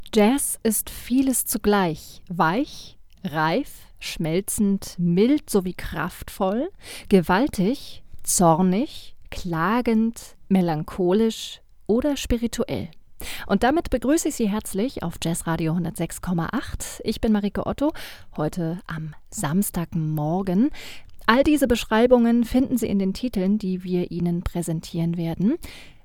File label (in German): moderation; Berlin; Muttersprache Deutsch